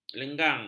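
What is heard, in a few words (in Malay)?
"lenggang", Malay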